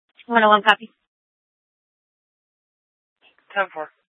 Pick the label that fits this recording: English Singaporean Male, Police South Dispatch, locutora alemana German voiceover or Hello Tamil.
Police South Dispatch